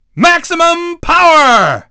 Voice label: dj pow max, DJ VOICE